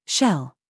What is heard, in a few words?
DRESS TRAP, minimal pairs